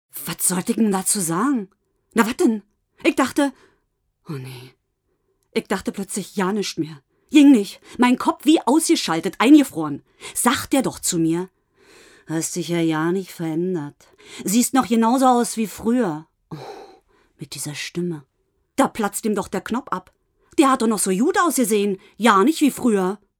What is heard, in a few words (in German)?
Dialekt berlinerisch